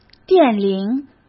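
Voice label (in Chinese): "电铃", Mandarin Chinese; hsk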